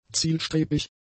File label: "zielstrebig", German; adjectives